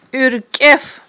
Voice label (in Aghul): "IуьркIеф", aghul